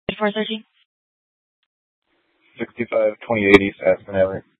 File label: Superstition Dispatch, Mesa PD